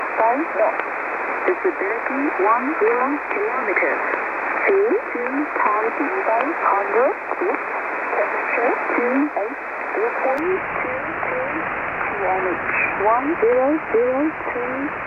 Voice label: Auckland Volmet USB; 13282khz